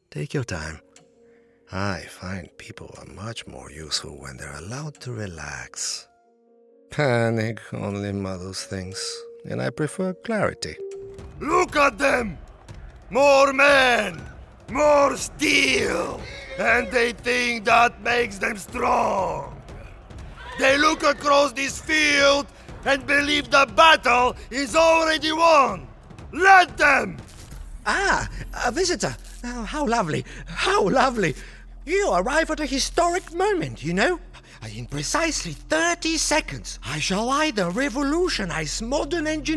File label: video game, voiceover